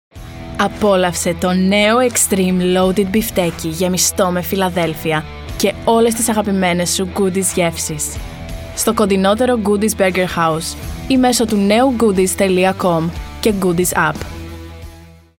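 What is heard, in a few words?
Greek, commercial; GoLocalise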